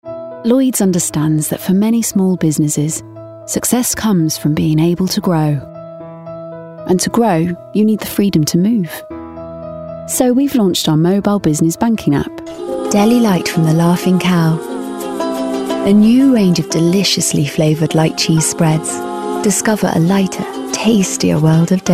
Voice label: commercial voice reel

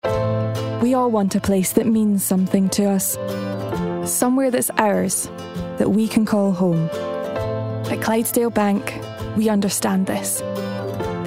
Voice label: COMMERCIAL Bank; Clydesdale Bank

